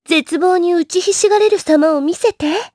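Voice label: voice, Japanese; Erze; kingsraid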